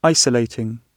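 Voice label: "isolating", British English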